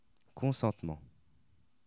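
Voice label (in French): "consentement", French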